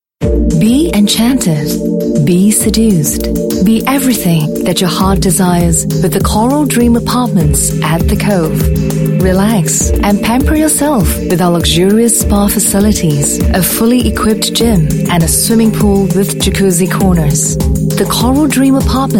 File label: Asian English apartments